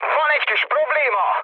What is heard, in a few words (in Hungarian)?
aircraft damaged mood med, hun; War Thunder